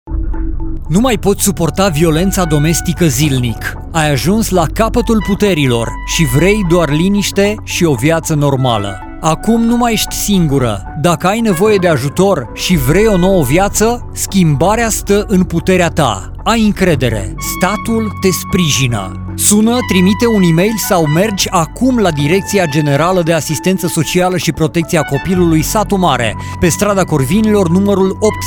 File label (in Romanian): Spot publicitar; Proiect Venus-Impreuna pentru o viata in siguranta!